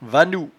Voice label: "vannou", patois jura

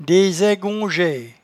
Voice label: "desaigongeaie", patois jura

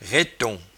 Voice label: "raiton", patois jura

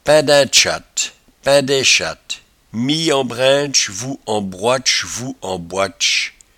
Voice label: "paindainchatte", patois jura

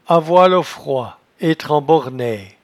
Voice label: "avoilofroid", patois jura

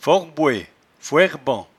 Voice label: "forboe", patois jura

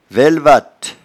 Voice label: "velvatte", patois jura

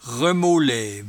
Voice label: "remolaie", patois jura

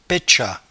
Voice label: "petchat", patois jura

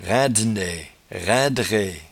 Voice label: "raindenaie", patois jura

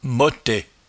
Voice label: "mottet", patois jura

